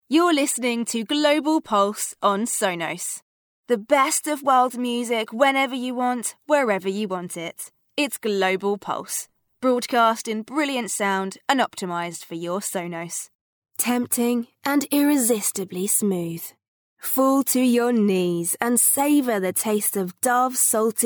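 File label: voice-over Mixed Commercial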